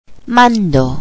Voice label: "command", Spanish